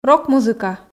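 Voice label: "рок-музыка", Komi, female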